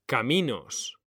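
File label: "caminos", Spanish, male; mekanta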